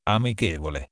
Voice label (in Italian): "amichevole", Italian